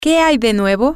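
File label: whats new, Spanish, female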